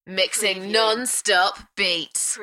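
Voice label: UK female, DJ vocals; Mixing nonstop beats; beanstalk audio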